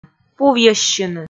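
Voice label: "пувйӧссьыны", Komi, female; kpv audio dict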